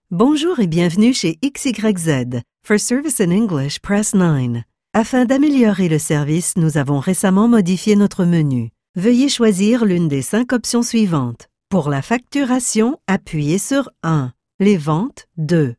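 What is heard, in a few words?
French, female, telecom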